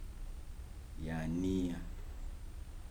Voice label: "small", Kibembe

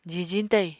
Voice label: "jijiñ tayiñ", Apatani

